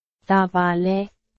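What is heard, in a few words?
Burmese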